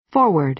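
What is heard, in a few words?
"forward", American English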